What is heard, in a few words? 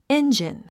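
"engine", English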